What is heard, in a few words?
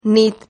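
"nit", Spanish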